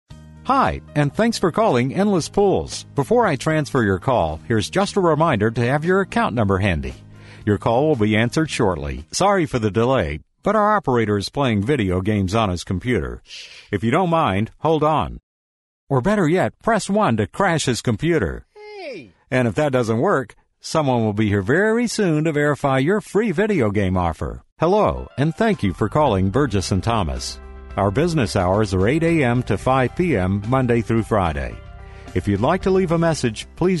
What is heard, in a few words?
male US telephone; uk sound studios